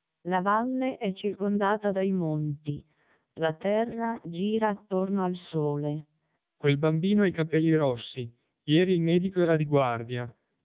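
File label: Italian short, speechsamples, MELPe 1200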